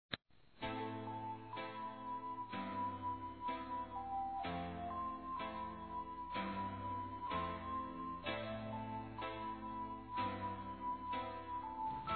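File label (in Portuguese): Aniversário Tempo Namoro Masculino; Aniversários; ANIVERSARIO romantico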